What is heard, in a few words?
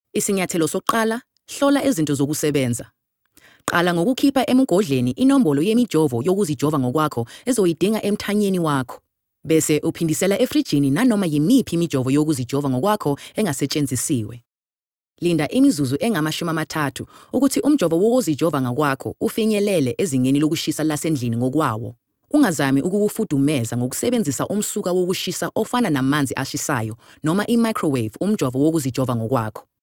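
Zulu, voice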